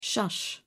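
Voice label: "shush", British English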